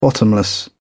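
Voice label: "bottomless", British English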